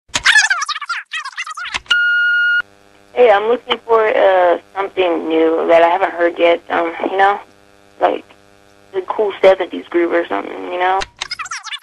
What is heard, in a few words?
Answering Machine